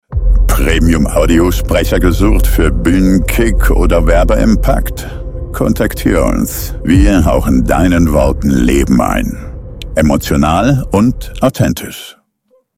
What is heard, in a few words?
Spot